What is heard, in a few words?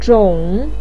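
"zhong3", Mandarin pinyin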